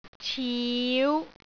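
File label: "ciu5", Cantonese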